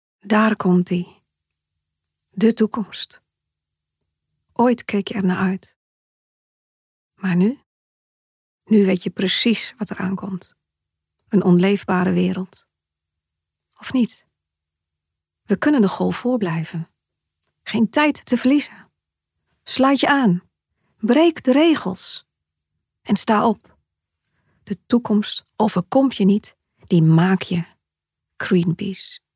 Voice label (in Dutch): warm; Greenpeace